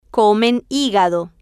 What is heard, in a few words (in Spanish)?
"comen Higado", Spanish